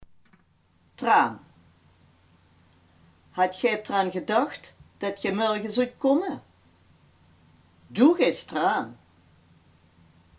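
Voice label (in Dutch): "eraan" Limburgish dialect word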